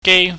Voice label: "gei", chinese

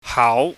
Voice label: "haau", chinese

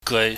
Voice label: "geui", chinese

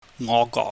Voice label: "ngohgwok", Cantonese

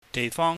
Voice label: "deihfong", cantonese

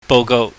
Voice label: "bougou", Cantonese

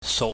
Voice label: "suk", Chinese

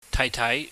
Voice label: "taaitaai", Cantonese

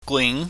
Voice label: "gwing", chinese

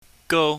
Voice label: "gou", chinese

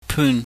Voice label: "pun", Chinese

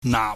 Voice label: "naap", Chinese

